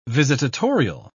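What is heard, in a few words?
"visitatorial", English, British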